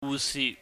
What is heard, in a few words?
"wuh sih", Cantonese